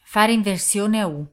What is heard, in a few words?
U Turn, Italian female